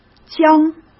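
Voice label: "江", Mandarin Chinese; chinese flashcards; hsk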